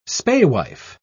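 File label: "spaewife", English, British English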